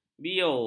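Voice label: "beo", Malay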